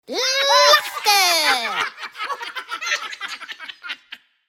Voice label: Laughter Spoken, Cute cartoon style voice saying ‘laughter’ with background cartoon lau; Cute cartoon style voice saying ‘laughter’ with background cartoon laughing; Funny Sounds